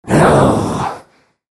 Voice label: anger; clinkz